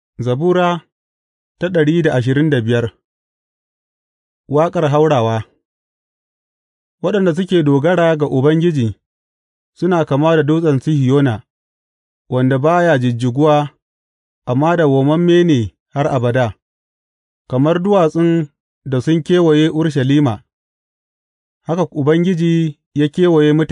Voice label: hausa bible drama, psalms; hausa bsn nt drama

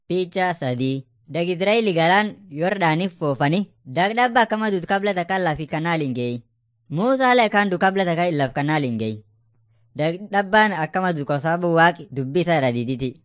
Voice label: afan munyoyaya

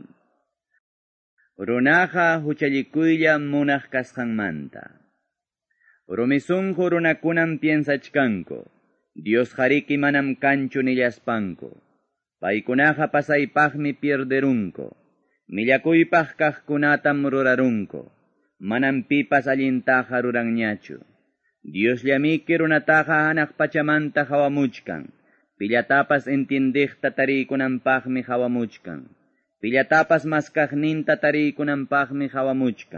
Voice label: salmos, quechua ayacucho

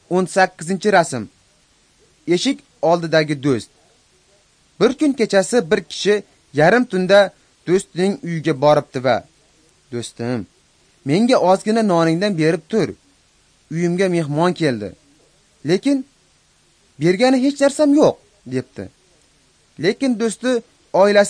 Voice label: uzbek northern

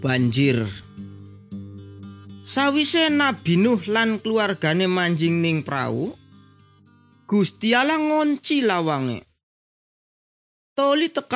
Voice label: good news jawa indramayu, javanese bible

